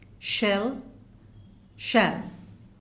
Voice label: "Shell" "shall"